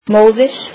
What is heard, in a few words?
icelandic mother